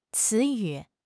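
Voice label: "ci2 yu3", Mandarin Chinese; HSK3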